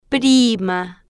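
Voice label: "prima", slow, Spanish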